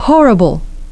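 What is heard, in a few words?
"horrible", English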